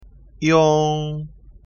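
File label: "iong", pinyin; ภาษาจีนกลาง; สำเนียงปักกิ่ง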